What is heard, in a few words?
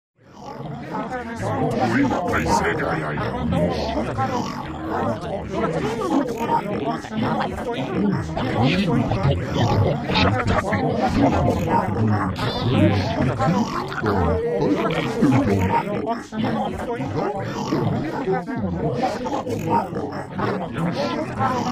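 conversations of a small crowd of aliens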